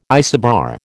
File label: "isobar", American